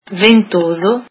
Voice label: "windy", italian